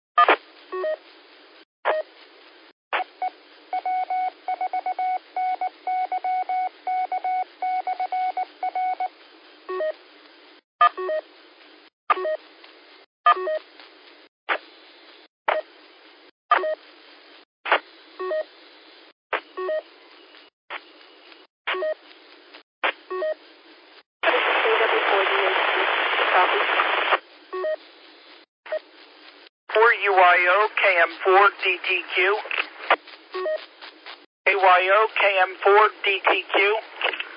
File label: qso rec simplex logic